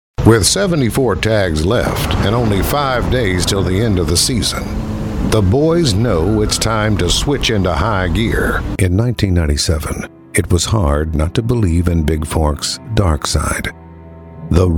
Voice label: english international narration